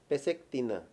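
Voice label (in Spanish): "confesar" Mayo, male; Habla y lenguaje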